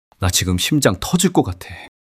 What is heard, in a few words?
fluster